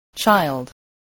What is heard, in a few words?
"child", English, voice